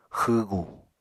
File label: "xwwgu", Apatani